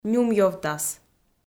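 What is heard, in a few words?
"нюмъёвтас", Komi, female; kpv audio dict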